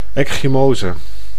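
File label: "ecchymose", Dutch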